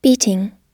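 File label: "beating", American English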